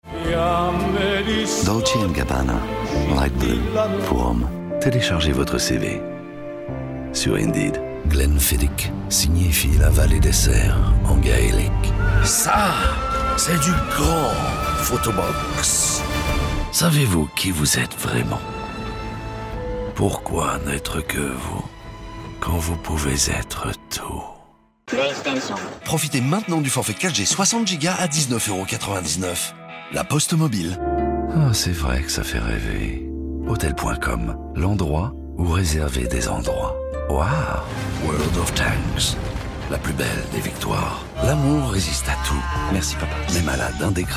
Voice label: French commercial voice over